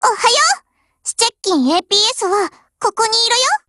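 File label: HELLO Japanese, Voice; GF Alarm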